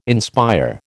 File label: "inspire", American